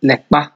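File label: "lepa", Nigerian Pidgin, male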